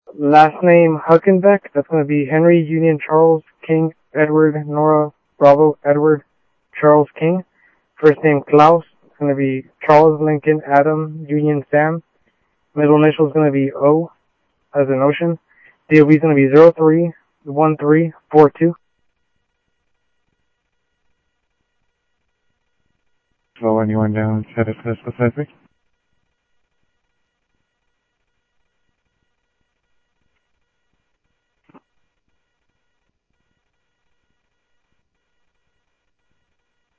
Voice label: Info Sheriff Common, scanner audio trunk

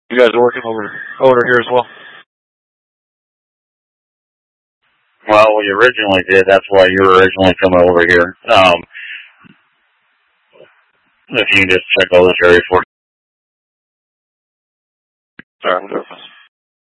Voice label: Mutual Aid-Intersystem Interop B, scanner

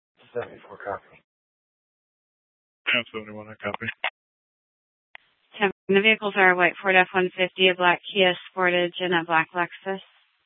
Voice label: PD Dispatch, scanner; Superstition; Mesa PD

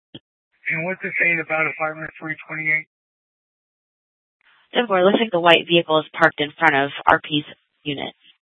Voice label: Police Department, Dispatch; TOPAZ Regional Wireless Cooperative (TRWC) - Mesa Simulcast; 1 Fiesta Dispatch

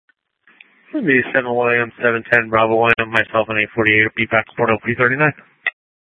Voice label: Sheriff, scanner P25

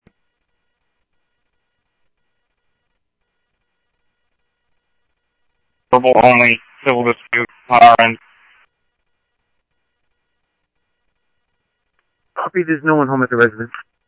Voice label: Police, scanner audio; ThompsonPeakHigh; SCTSDLE PD - PD2 ScottsdalePolice

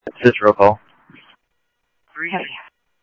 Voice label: Mesa Simulcast, MESA PD, scanner audio

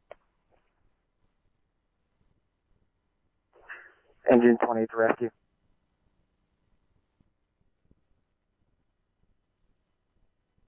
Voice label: Phoenix Fire, scanner; RWC; H-Scottsdale

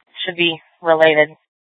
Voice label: Scottsdale PD Sth Dispatch, scanner

